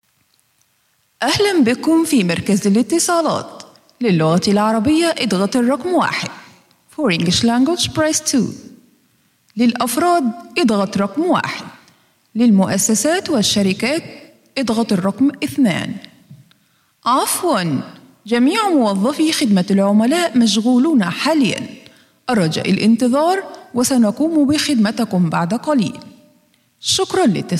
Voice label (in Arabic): الرد الآلى